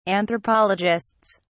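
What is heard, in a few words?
"anthropologists", English, British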